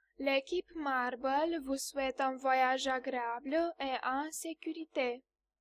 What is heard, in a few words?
French